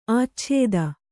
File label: "ಆಚ್ಛೇದ", Kannada